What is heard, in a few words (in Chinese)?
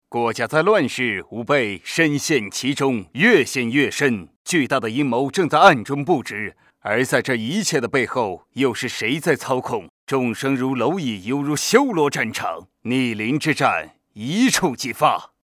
男 游戏角色配音